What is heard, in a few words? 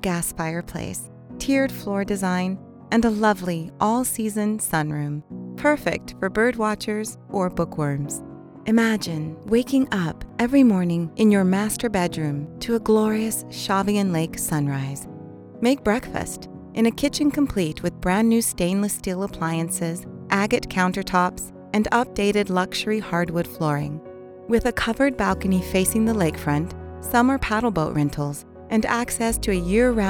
Travel Vacation Destination Home Promo